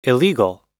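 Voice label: "illegal", American English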